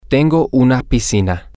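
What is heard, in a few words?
i have a pool, spanish, male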